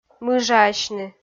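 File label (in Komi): "мыжаасьны", Komi, female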